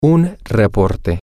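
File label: male, spanish; report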